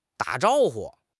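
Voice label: "da3 zhao1 hu5", Chinese; HSK4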